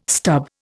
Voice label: "stub", Indian